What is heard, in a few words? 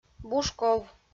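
"бушков", Komi-Zyrian, female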